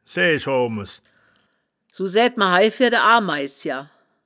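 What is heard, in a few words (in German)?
"saeaesch-oaomes", wittlicher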